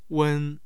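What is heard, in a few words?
"wen1", Mandarin Chinese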